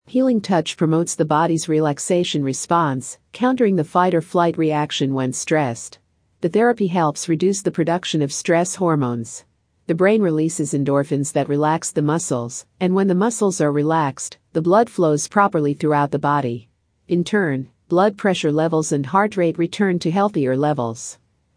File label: Female